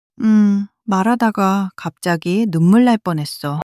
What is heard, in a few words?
sadness, monologue